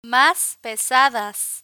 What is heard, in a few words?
"mas pesadas", Spanish; vocabulary; learn spanish